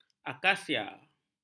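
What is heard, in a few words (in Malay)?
"akasia", Malay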